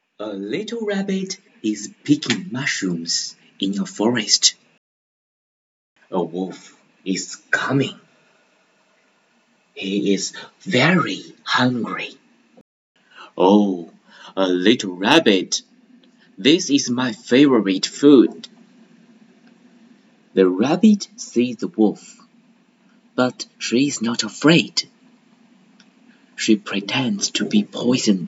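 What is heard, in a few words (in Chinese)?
英文绘本干音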